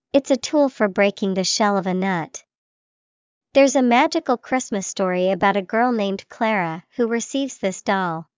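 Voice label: nutcracker, English vocabulary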